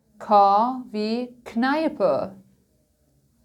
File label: german alphabet